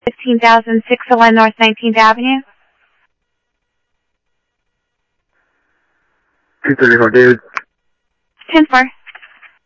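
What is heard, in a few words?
police department, scanner audio; ThompsonPeakHigh; PHOENIX PD-200 A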